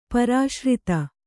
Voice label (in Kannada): "ಪುರುಷಾರ್ಥ", Kannada